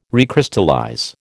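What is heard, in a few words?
"recrystallize", American English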